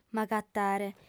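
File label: "m'agatare", female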